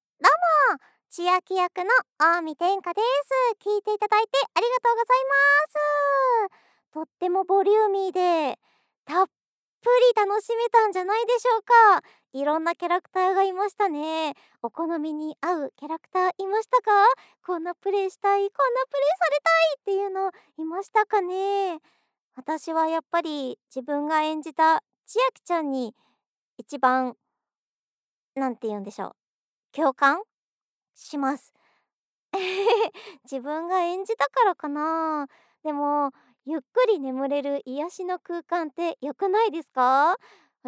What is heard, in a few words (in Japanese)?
フリートーク